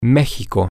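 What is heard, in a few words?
Spanish, male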